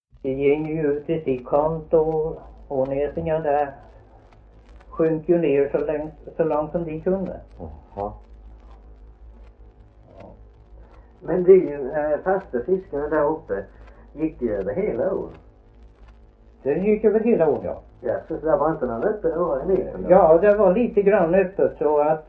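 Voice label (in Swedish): Värö Halland, dialekter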